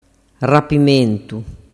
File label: "rapimentu", dialettu